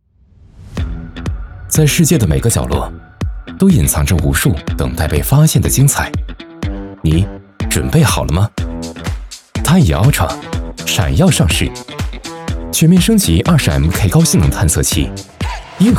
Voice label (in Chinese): 广告片年轻探野